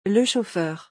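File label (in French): "le chauffeur", French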